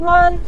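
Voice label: "ruan", Mandarin Chinese, neutral tone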